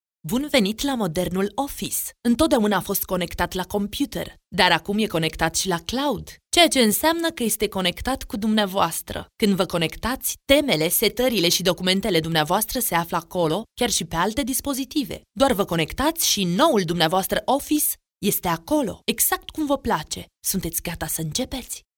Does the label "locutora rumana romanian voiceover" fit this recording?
Yes